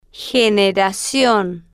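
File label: "generacion", slow, spanish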